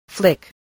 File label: "flick", English